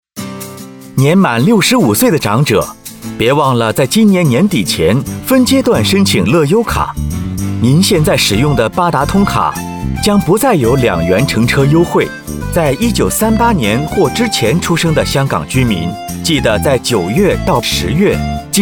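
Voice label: Mandarin; fare concession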